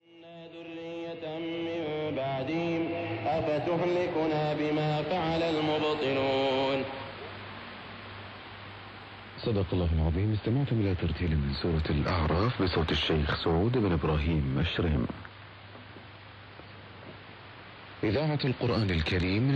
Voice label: 17614 KHz, arabic; Saudi Radio; FRG 7; Fribourg

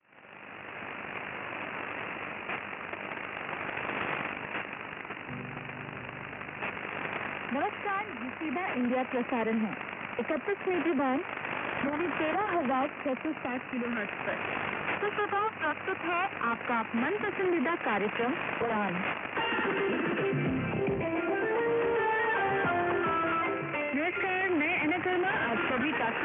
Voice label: 9540 kHz hindi; FEBA Radio India; Perseus; Schwarzsee

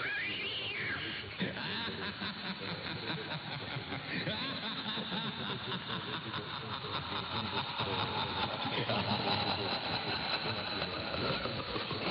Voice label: Radio 1584 kHz italiano; Studio X; Perseus; Schwarzsee

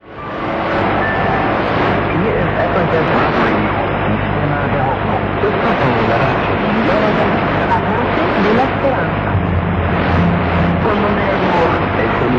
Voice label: 15145 KHz french, Adventist World Radio; Degen DE 1103; Telescopica indoor; St. Ursen

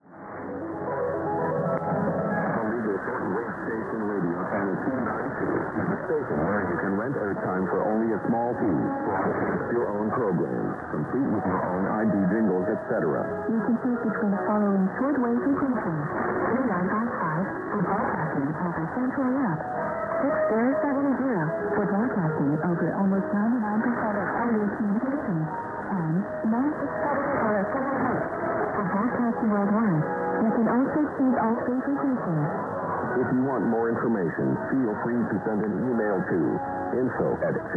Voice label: Radio 6070 kHz; Channel 292; Perseus; Schwarzsee

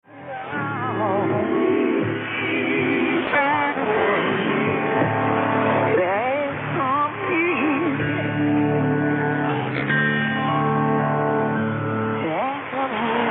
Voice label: Radio New Zealand International 15720 inglese

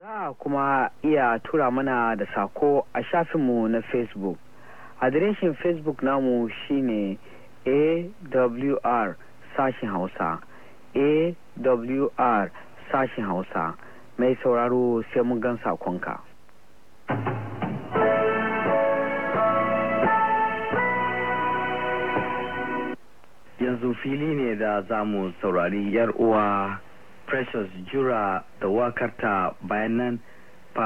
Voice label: 9630 kHz hausa; AWR; FT2000; dipolo

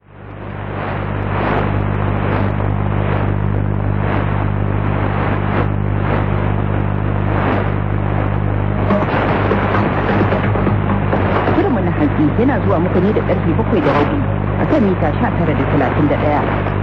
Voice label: 9440 kHz hausa; BBC Ascensione; Degen DE 1103; telescopica indoor; St. Ursen

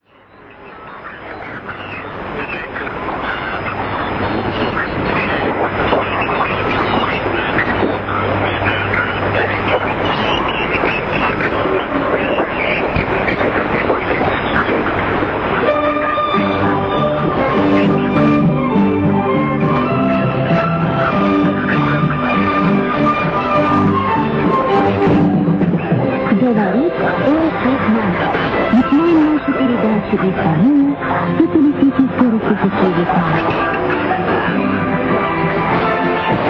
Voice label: 11885 kHz russo; La Voix du Vietnam; Degen DE 1103; filo 5m indoor; St. Ursen

